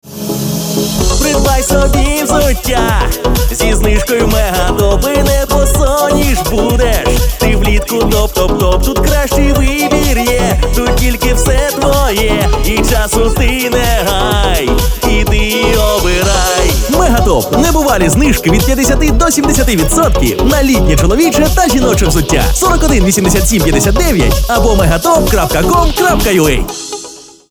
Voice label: ukraine male

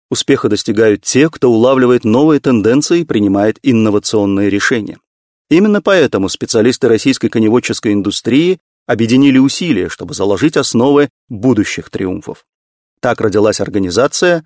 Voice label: russian male

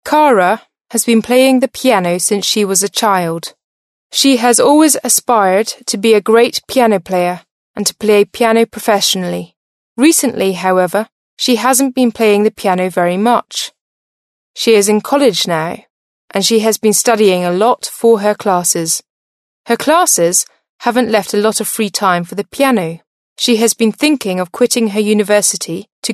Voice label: british female

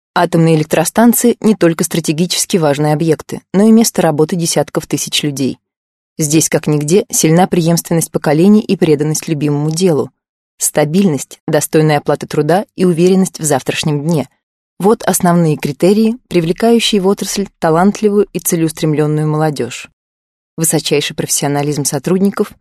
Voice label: russian female

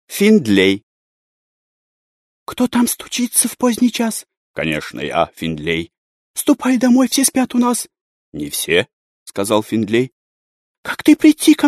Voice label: russian male

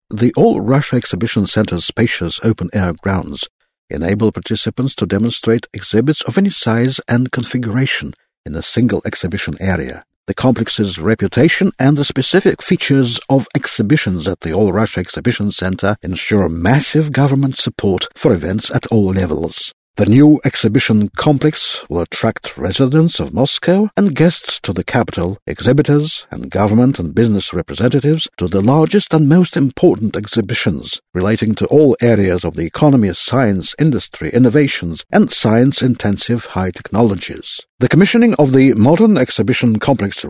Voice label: british english male

